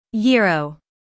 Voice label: "gyro", US; IPA: /ˈjɪr.oʊ

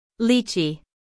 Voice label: "lychee", US; IPA: /ˈliː.tʃiː

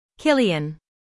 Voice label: "cillian", US; IPA: /ˈkɪl.iː.ən

